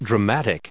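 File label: "dramatic", English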